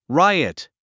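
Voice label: "riot", English word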